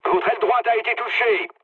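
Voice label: French right wing mood low; War Thunder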